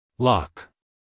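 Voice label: "loch", American English, male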